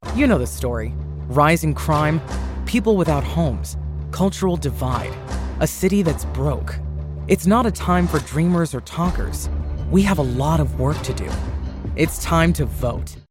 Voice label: convincing compelling influential deep in control important action